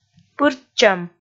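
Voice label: "пыртчӧм", Komi, female; kpv audio dict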